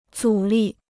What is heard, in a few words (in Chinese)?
"阻力", Chinese